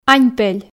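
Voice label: "аньпель", Komi, female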